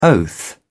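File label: "oath", English, British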